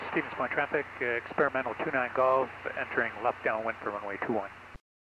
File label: Aviation 122.7; KSTE